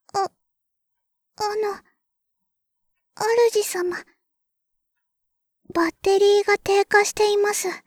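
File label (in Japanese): バッテリー低下, システムボイス; ベル・カールステッド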